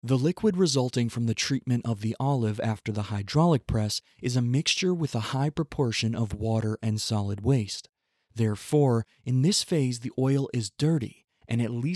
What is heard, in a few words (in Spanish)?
explicativo de depositos Ingles; Almazara Hacienda Molino Nuevo